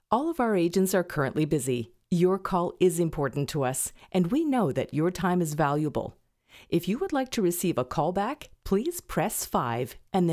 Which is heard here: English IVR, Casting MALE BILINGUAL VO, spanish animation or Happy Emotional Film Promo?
English IVR